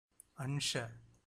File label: "ansha", Tamil